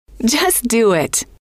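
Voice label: just do it, female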